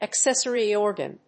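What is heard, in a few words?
"accessory organ", English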